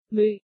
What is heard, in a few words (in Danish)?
"MØ", danish